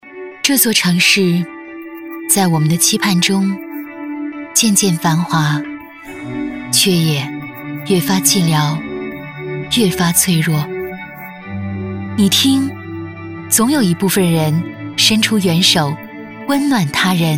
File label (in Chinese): 女 旁白; 兴义社会义工联合会